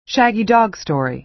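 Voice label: "shaggy-dog story", American English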